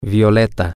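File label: violet, Spanish, male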